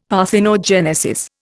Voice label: "parthenogenesis", Indian; Dictionary